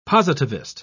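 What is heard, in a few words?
"positivist", British English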